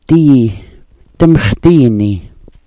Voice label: "dii", smalgyax

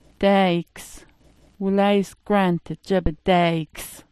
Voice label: "daayks", smalgyax

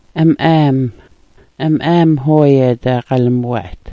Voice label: "am'aam", Smalgyax

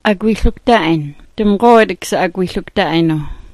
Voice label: "agwilukt'a'yn", smalgyax

